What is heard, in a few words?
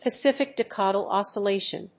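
"pacific decadal oscillation", English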